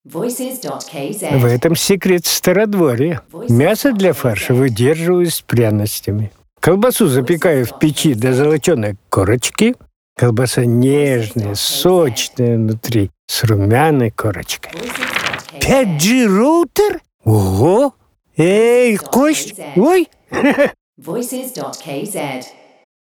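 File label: Russian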